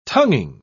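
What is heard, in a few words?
"tonguing", British English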